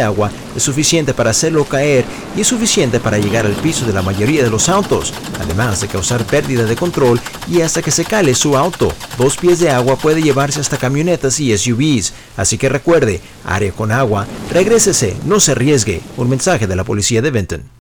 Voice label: SPANISH FLOOD PSA